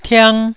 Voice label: "tiang1", Taiwanese